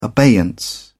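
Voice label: "abeyance", British English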